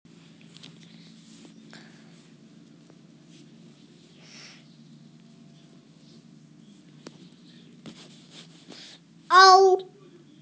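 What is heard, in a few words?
"dou1", Mandarin Chinese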